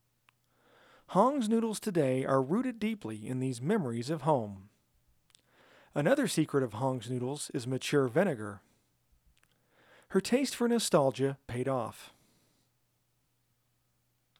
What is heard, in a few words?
Noodles SCRATCH VO